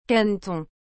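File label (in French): "caneton", French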